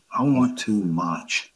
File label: Voice Acting es M